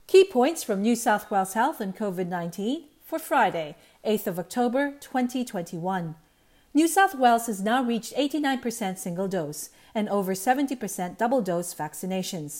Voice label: english